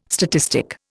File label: "statistic", Indian